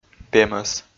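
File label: "пемӧс", Komi, male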